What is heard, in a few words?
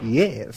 "yes", happy